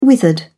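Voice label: "withered", English, British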